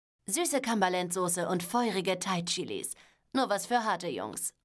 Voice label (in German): impro, sprache